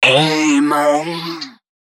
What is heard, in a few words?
male d#130, Dance Ultra Voice hooklines; Mutekki Media; Dance Ultra Voice VOL-1